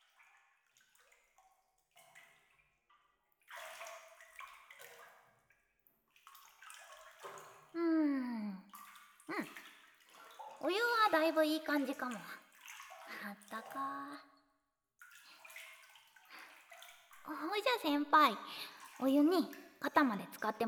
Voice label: お風呂でゆったりボディウォッシュ, ASMR

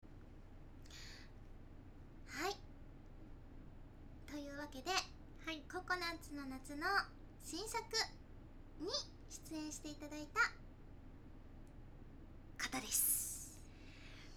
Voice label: フリートーク, ASMR

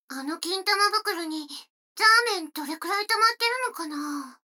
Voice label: 女の子台詞, ASMR

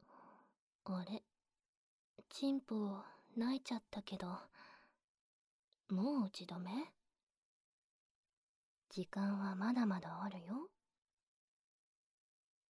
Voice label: 淫語連呼; 外では真面目だけど、家ではえっちで甘えん坊なクールお姉ちゃん【バイノーラル】; おまけ『淫語連呼で勃たせてあげる』

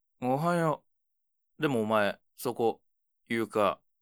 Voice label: mezamashi, ASMR

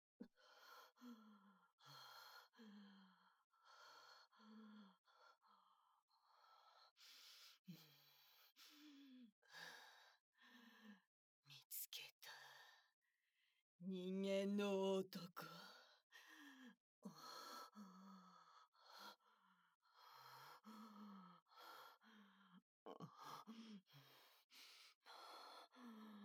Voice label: 耳舐め 本編 ＳＥなし; 耳舐めモンスター

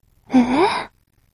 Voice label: mob女子台詞

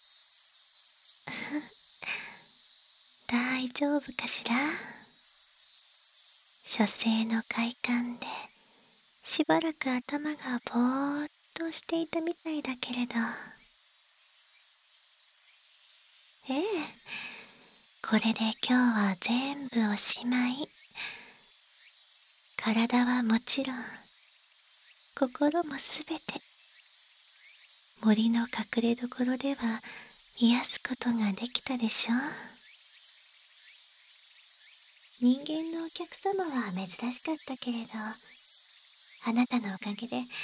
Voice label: エンディング（バイノーラルマイク 効果音あり）

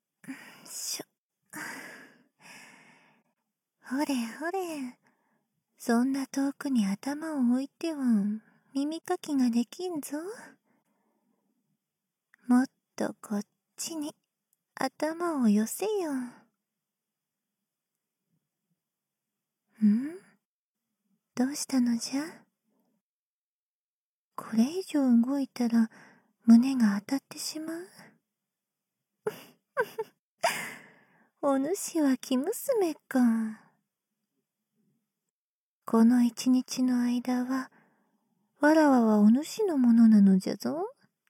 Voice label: 耳かき左右（囁き重視編集 効果音なし）, ASMR

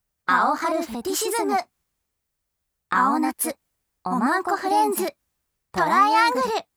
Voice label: タイトルコール

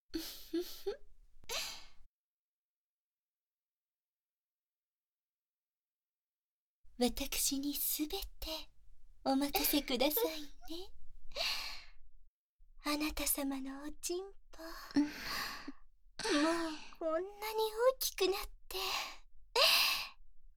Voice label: 乳首舐め+フェラ、耳舐め+本番、カウントダウン乳首舐め射精＆お掃除フェラ（バイノーラルマイク 効果音大きめ）

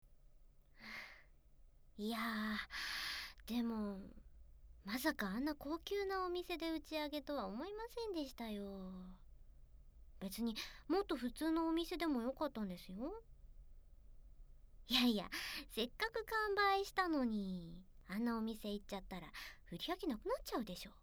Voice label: 耳かきしてあげましょっか(効果音無し); 同人声優オフパコ; 童貞の音声作家とコスプレオフパコしちゃうえっちな同人声優はお嫌いですか？

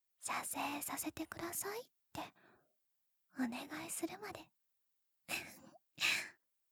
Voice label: カウント一回目, ASMR; left

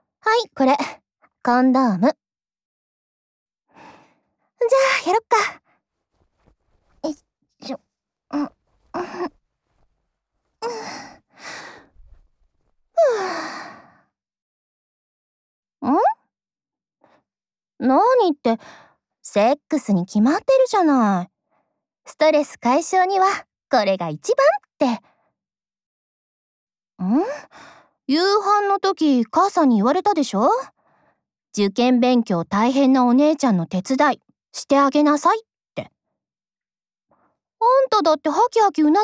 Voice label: Whisper, ASMR

